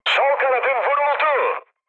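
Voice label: Turkish left wing, mood high, speech; War Thunder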